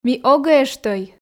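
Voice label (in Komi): ми огӧ эштӧй, Komi, female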